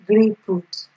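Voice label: "gree-put", Nigerian Pidgin, female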